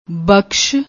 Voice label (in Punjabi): "bakash", Punjabi